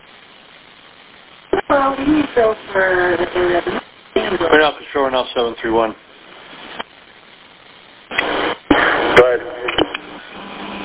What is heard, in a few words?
Police Radio